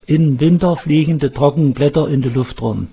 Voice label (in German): nordsachsisch